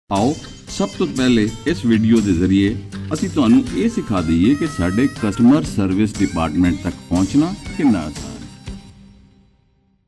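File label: Punjabi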